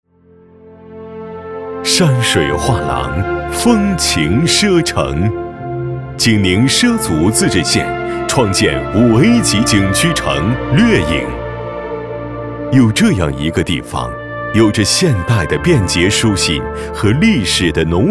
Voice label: 男 专题 大气沉稳融入感情; 景区城创建

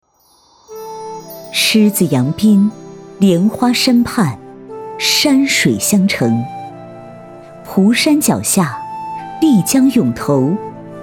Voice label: 专题 广州 大气成熟有感情

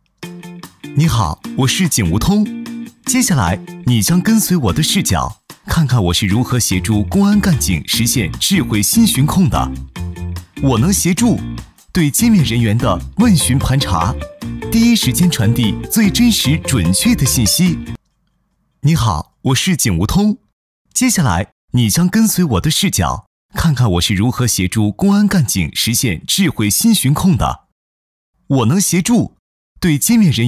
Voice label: 男 MG

